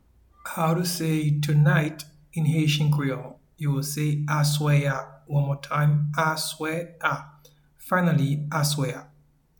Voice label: Tonight in Haitian Creole Aswe a audio translation